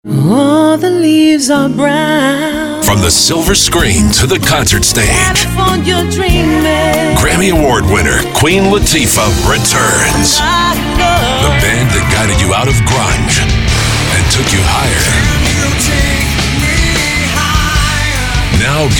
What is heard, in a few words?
concerts, promo imaging male